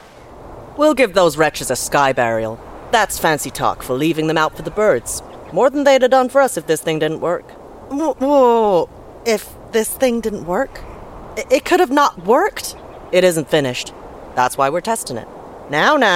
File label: Standard US; Horizon